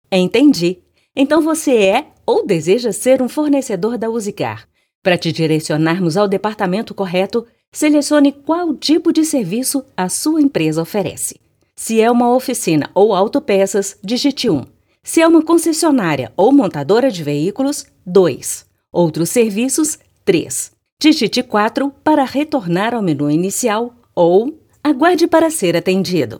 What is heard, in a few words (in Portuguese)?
ura espontaneo; usecar